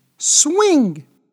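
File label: "swing", English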